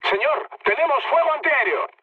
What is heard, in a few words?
damaged aa, mood med, speech; War Thunder